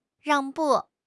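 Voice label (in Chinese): "让步", Chinese; HSK6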